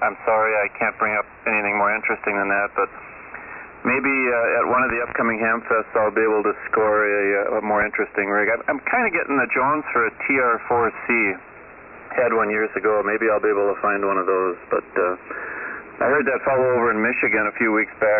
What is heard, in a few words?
Yaesu FT-101E Shure 444 Mic; Sussex, Wi.